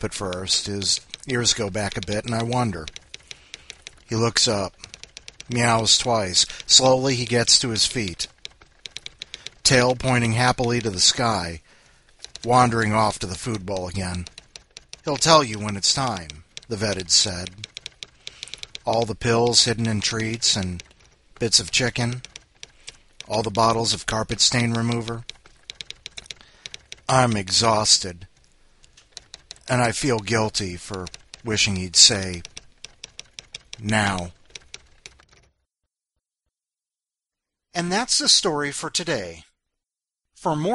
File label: whisper